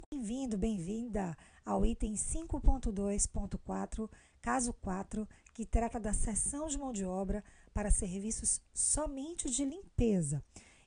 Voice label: AUDIOBOOK